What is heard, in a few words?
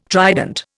"trident", Indian